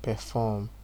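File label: "perform", Nigerian Pidgin, male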